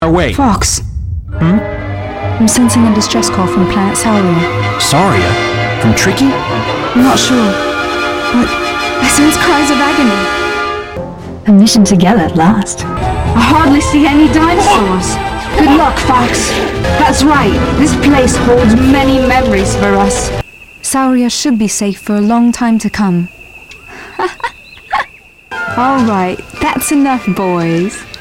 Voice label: English; Assault; Sauria